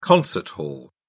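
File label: "concert hall", British English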